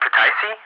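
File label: "Kutaisi", English, ATC Callsign; DCS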